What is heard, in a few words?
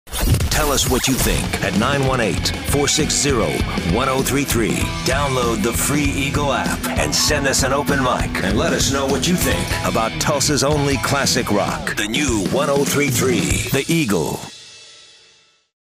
Listener Promo Close